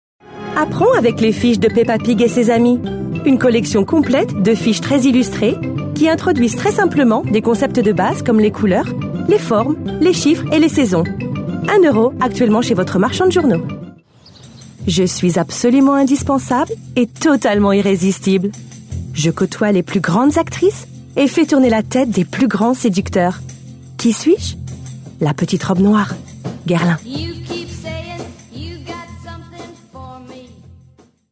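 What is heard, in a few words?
French, female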